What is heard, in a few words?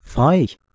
"faih", Proto-Germanic